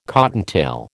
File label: "cottontail", American